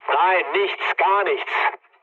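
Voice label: German chat, speech; War Thunder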